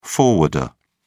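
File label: "forwarder", English, British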